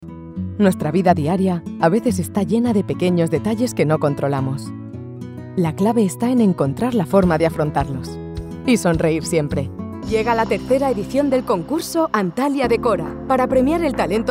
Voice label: spanish corporate videos